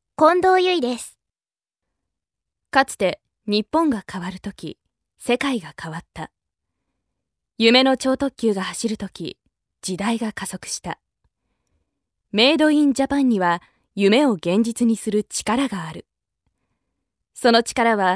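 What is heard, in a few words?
japanese female character; voice demos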